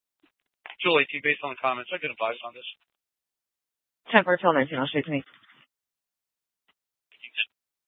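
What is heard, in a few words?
police dispatch, Scottsdale PD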